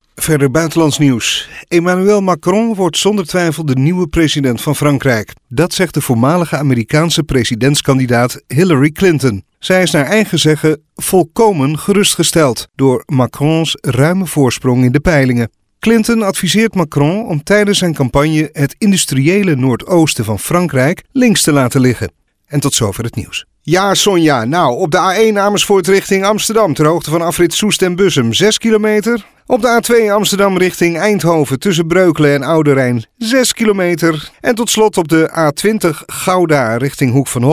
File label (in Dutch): stemacteur online nieuwslezen